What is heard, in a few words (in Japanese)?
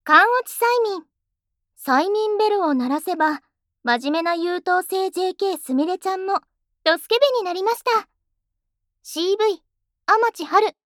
タイトルコール